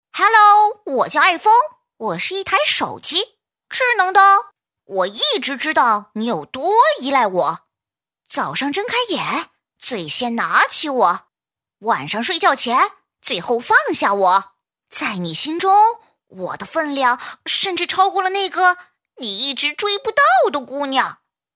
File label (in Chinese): 童声 男童; 我是手机